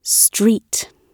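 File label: "street", British English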